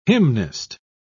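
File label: "hymnist", British English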